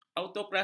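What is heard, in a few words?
"autokrasi", Malay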